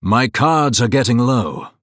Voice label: Male Blood Elf Low Cards